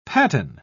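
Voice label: "paten", British English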